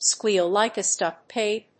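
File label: "squeal like a stuck pig", English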